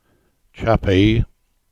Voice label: "Chappie" EN